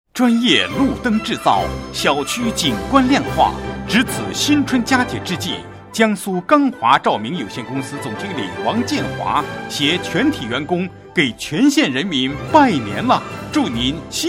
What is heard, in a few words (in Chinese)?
广告春节贺年 雄浑大气配音; 刚华路灯